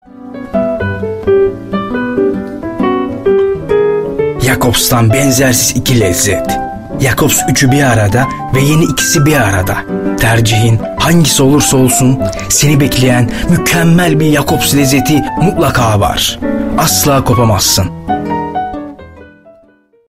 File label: Turkish, Male